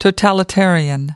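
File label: "totalitarian", US English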